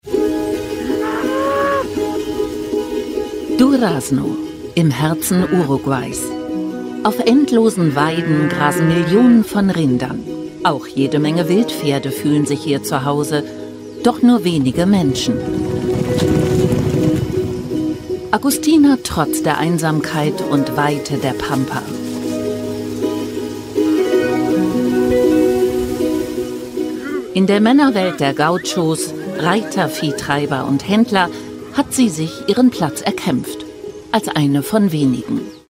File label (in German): Doku, Comment (Kommentar), German; Arte; Agustina, la gaucha